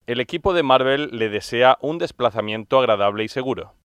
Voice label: Spanish